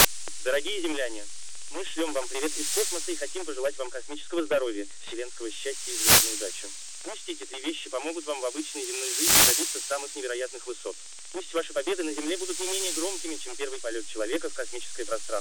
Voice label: male